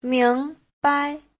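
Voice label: "míngbai", Chinese; 明白; Giáo trình chuẩn HSK3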